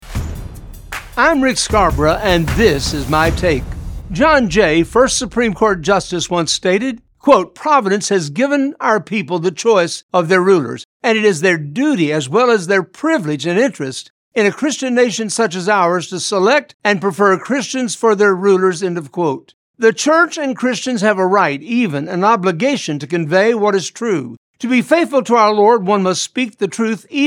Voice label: Radio Spot; John Jay